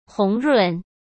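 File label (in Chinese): "红润", Mandarin; chinese-flashcards; hsk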